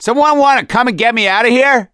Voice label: call for rescue, survivor voice; gambler